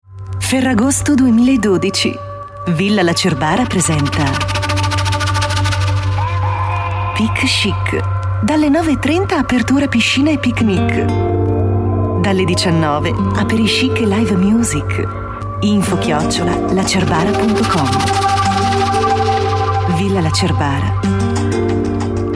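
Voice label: Italian commercial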